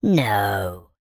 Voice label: cartoon male high pitched voice says no; zapsplat